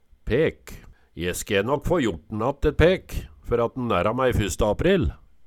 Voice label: "pek", Numedalsmål

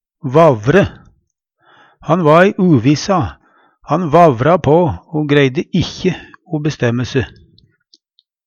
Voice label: "vavre", Numedalsmål; Han va uviss, vavra på o greidde ikkje bestemme se.

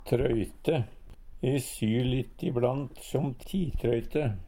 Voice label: "trøyte", Numedalsmål

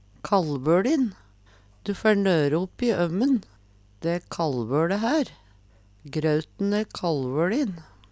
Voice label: kallvolin, Numedalsmål

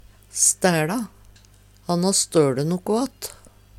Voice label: stæLa, Numedalsmål

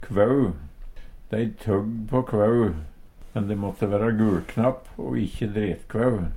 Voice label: kvau, Numedalsmål; Hushald, mat, drikke

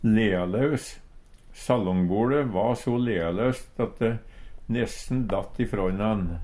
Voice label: lealaus, Numedalsmål; SalongboLe va so lealaust åt de nesten datt frå innan.; Adjektiv

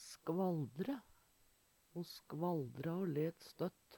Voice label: skvaldre, Numedalsmål; Verb; Ho skvaldre o let støtt.

